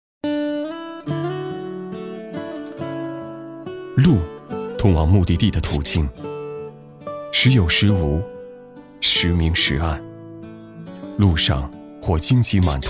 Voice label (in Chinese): 男 独白 内心独白